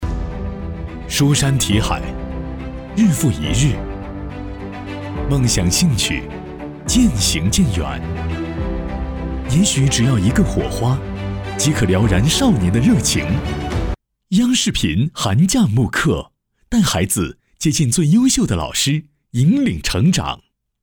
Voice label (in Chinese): 高端走心